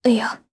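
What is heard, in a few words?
Vox Deny, Japanese; Tanya; kingsraid audio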